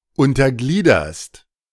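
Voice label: "untergliederst", German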